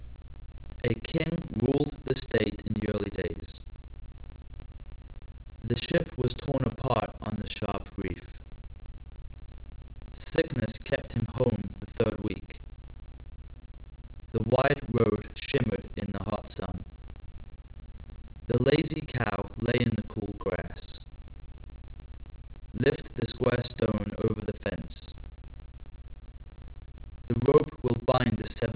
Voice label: male